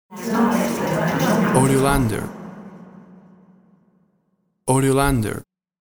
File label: Witch Talk Low